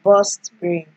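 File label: "burst brain", Nigerian Pidgin, female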